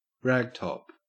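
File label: "ragtop", Australian English; pronunciations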